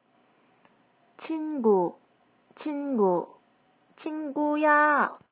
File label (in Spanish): chingu en coreano